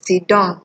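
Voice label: "sidon", Nigerian Pidgin, female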